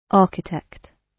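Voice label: "architect", British; Study, education, research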